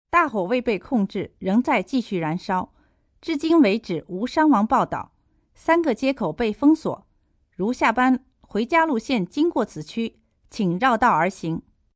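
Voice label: News Fire, Broadcast, news, female news item in Manderin on out of control fire; VoiceBox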